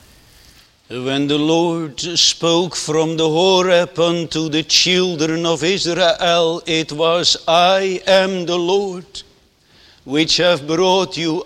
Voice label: Sermon